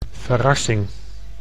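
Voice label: "verrassing", Dutch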